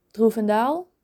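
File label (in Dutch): "Droevendaal", Dutch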